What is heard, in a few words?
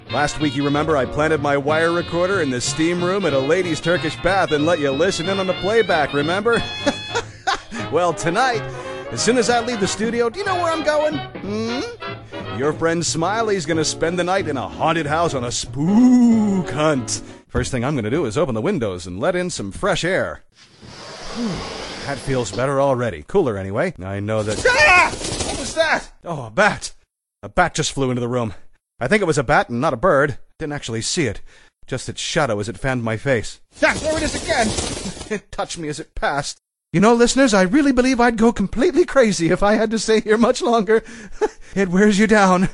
Voice acting